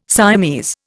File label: "Siamese", Indian